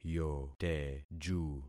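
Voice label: "yoteju", concatenated syllables; Affixing experiment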